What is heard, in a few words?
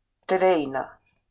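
"tereina", Māori; train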